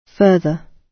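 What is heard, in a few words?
"further", British English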